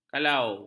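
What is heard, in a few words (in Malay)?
"kalau", Malay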